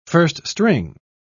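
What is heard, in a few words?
"first-string", British English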